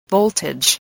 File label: "voltage", spelling